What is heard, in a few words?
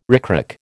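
"ricrac", American English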